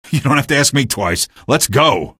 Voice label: evac ok, male; video games; npc; source engine; episodic; episode 1